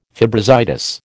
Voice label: "fibrositis", American

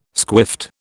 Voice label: "squiffed", British

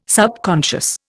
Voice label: "subconscious", Indian

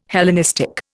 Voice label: "Hellenistic", Indian

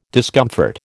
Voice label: "discomfort", American

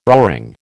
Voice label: "roaring", American

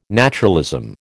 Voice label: "naturalism", American

